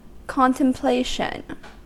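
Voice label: "contemplation", US English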